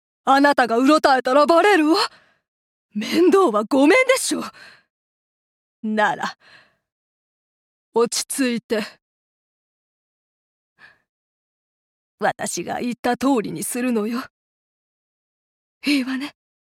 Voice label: 婦人

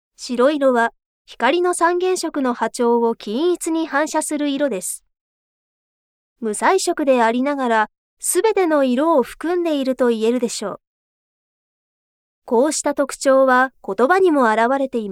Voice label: ストレートナレーション

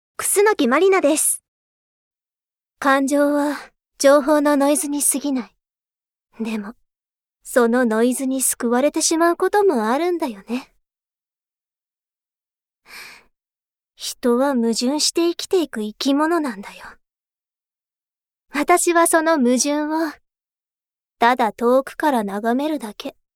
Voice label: 少女

